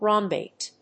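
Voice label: "rhombate", English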